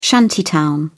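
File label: "shantytown", British English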